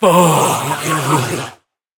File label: Death Vocals; Candlehead